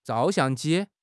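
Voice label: "zhao4 xiang4 ji1", Chinese; HSK; HSK3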